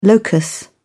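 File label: "locus", English, British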